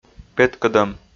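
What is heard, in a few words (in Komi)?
"петкӧдӧм", Komi, male; audio dict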